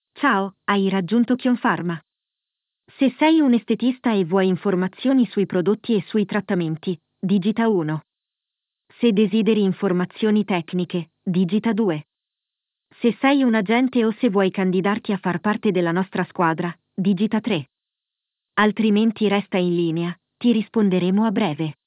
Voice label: IVR; KionPharma